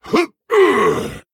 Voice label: Male Ogre Attack

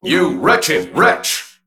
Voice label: Male Mech Attack

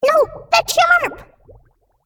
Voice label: Female Elemental, Attack

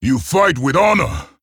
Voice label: Male Orc Well Played; Drek Thar

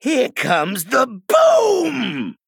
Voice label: Male Goblin

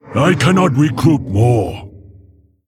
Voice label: Male Mogu ERROR FULL MINIONS

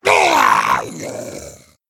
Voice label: Male Gnoll Death

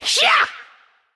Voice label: Female Naga Attack

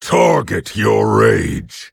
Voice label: Orc; Nerzhul; Bloodlust

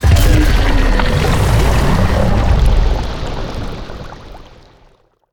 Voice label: Male Beast Death; Ozumat

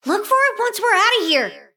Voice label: Female Mecha Gnome Victory

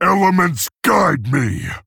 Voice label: Male Orc Hero Power; Thrall; Jaina

